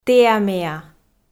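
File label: "тэа-меа", Komi, female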